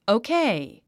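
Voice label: "okay", English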